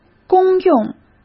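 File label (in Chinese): "公用", Mandarin Chinese; hsk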